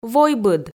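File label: "войбыд", Komi, female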